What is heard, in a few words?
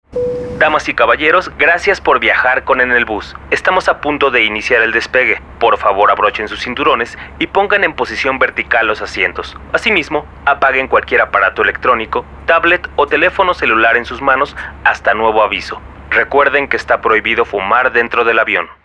On flight announcement; voiceover demo